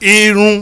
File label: "irun", Yoruba; irun-okun-oyun